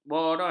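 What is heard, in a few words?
"boron", Malay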